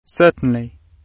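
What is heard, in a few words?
"certainly", British English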